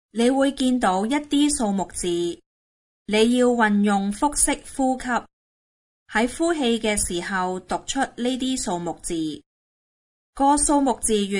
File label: instruction breathing exhale; aphasia